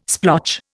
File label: "splotch", Indian